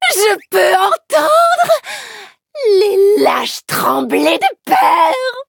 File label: levelup fr; cookie run